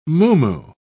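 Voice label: "muumuu", British English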